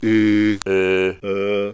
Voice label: central Unround